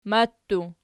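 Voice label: "matii" plural, Archi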